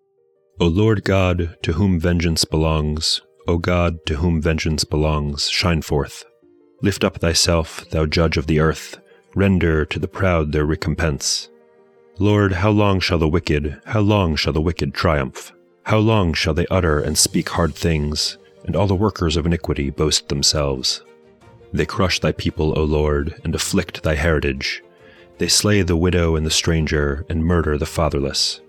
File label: poetry and prayer psalm english; Meir Soloveichik